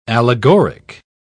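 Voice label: "allegoric", British English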